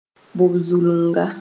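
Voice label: "bog-zulunga", Mooré; abyss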